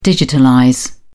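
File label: "digitalize", en, British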